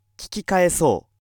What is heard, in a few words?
male